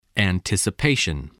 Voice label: "anticipation", spelling